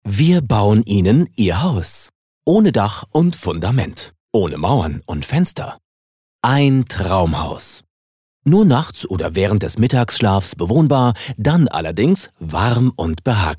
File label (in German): Werbung Freundlich